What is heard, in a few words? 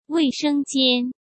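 "卫生间", Mandarin Chinese; hsk; chinese-flashcards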